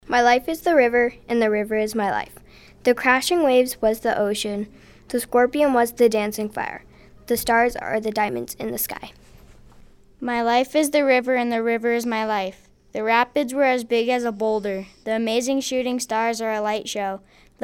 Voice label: voices group